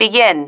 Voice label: "begin", female